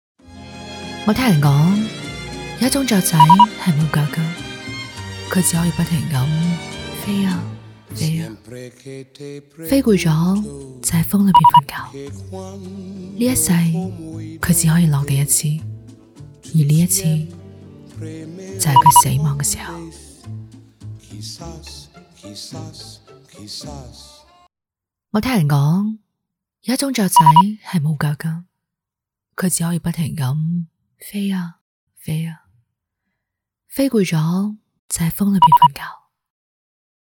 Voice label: 女, 粤语, 旁白独白; 没脚的小鸟

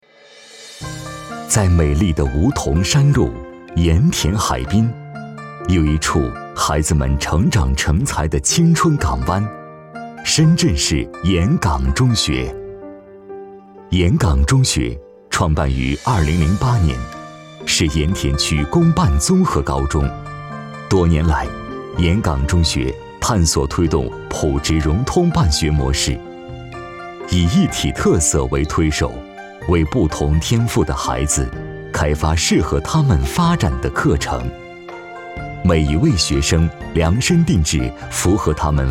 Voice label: 男 学校 清新 希望; 盐港中学

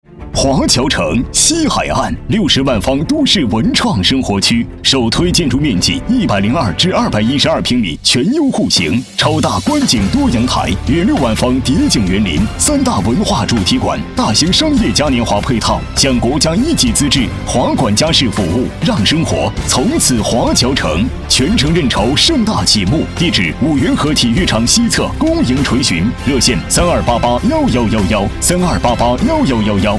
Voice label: 男,电台地产,激昂高亢; 华侨城

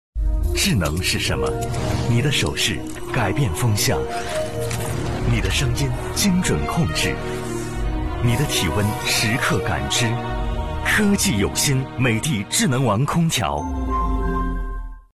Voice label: 男 广告 空调; 国语男声配音; 科技有心 美的智能王空调

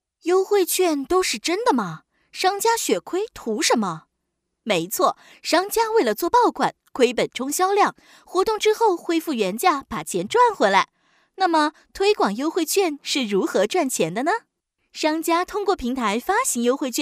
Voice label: 女--中文 MG; 乐淘

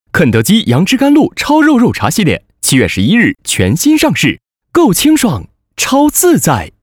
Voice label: 男 广告; 肯德基杨枝甘露

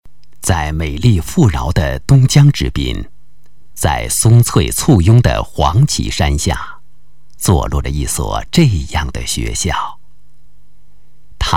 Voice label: 男 专题, 国语男声配音; 东莞市广播电视大学专题

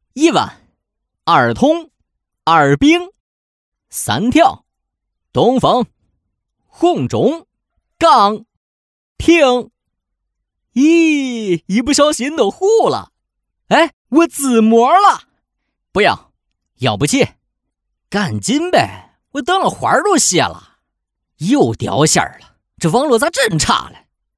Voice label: 男 棋牌 河南话

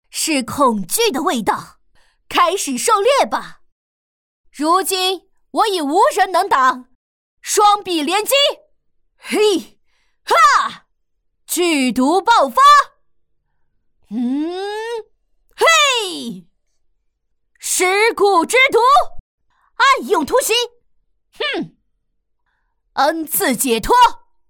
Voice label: 女 游戏 御姐攻击语气

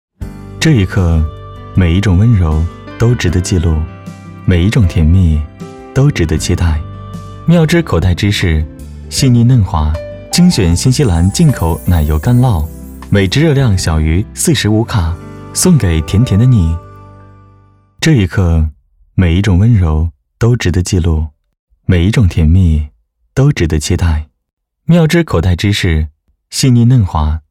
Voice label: 男 口袋芝士 宣传; 妙芝口袋芝士

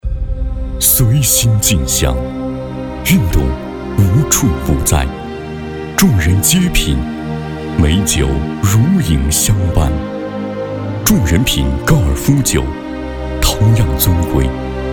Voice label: 男 高端白酒广告; 酒类广告; 高尔夫酒

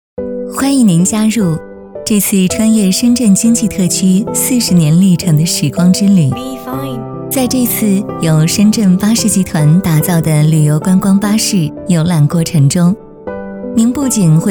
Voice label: 女 旅游解说 旅游观光巴士; 橙线景点

